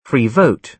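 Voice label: "free vote", British English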